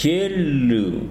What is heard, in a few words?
"rojo", Tsesungun